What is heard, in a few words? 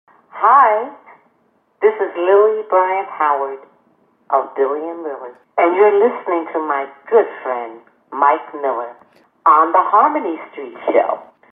promo